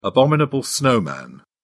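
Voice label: "abominable snowman", British English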